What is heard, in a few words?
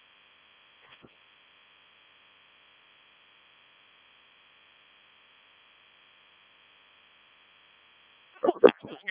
decoded speech, 7177KHz